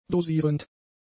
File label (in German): "dosierend", German; adjectives